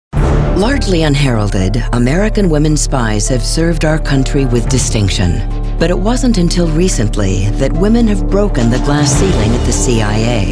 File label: narration female in show